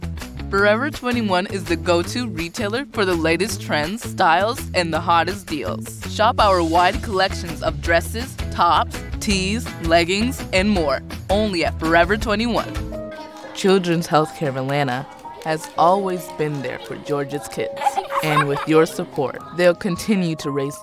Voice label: commercial